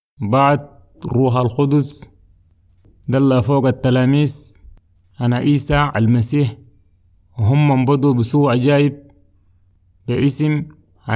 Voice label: Arabic Chadian; Pierre et Jean guérissent un boiteux; Peter and John Heal a Crippled Man

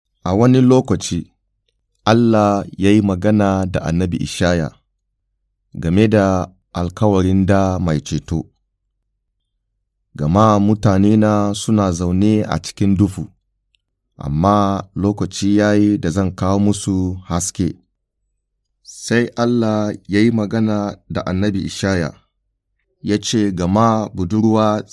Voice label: Hausa Nigeria; Annabcin Ishaya; Suffering Servant

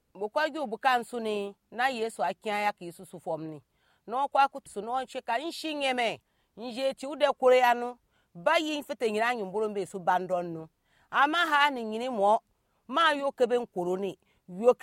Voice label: Anufo; Arrestation de Jésus; Arrest and Trial of Jesus

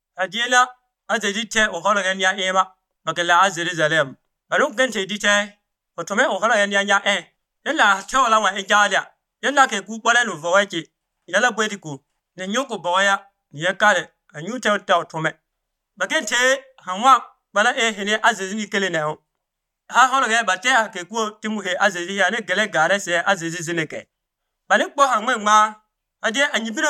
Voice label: Pougouli; Entree triomphale de Jesus a Jerusalem; Jesus Triumphal Entry into Jerusalem

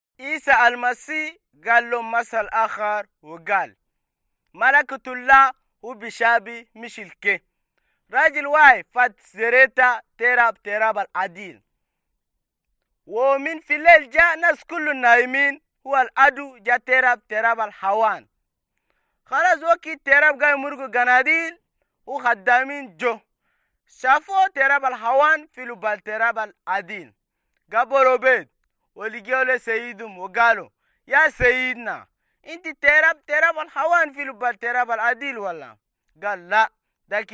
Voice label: Arabic Chadian

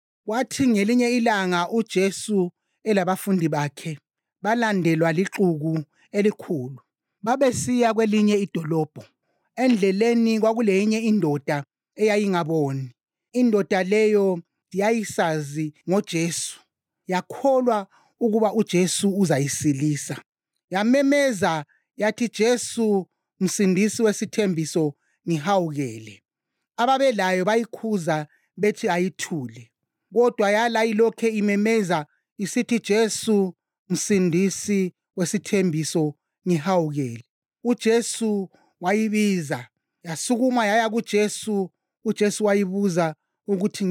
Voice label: Ndebele; uJesu uvula amehlo endoda eyisiphofu; Jesus Restores Sight to Bartimaeus

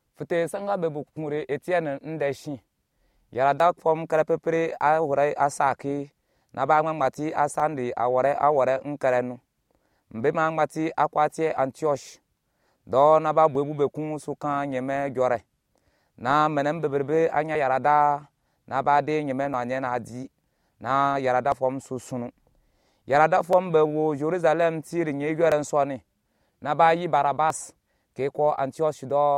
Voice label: Anufo; Expansion de l’Eglise à Antioch; Church Expands to Antioch

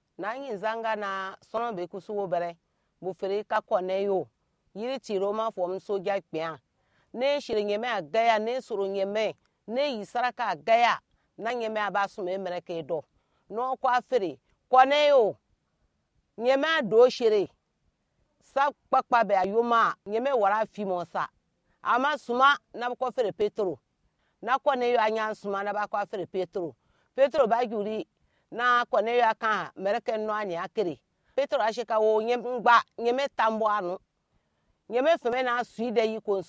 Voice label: Anufo; Pierre chez Corneille; Peter Visits Cornelius

